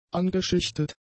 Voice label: "angeschichtet", German; adjectives